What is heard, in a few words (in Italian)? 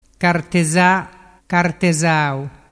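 "carteza zau", dialect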